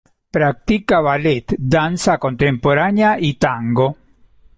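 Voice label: "tango", Spanish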